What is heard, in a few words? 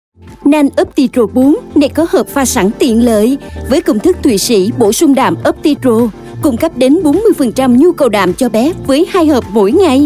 South Female, Vietnamese